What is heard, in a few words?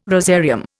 "rosarium", Indian